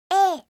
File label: "a", alphabet